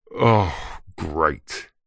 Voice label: human male grumpy angry frustrated says oh great; zapsplat